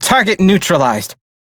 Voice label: Crew Gun Kill Fighter, Male; Warframe; Railjack; Perrin Sequence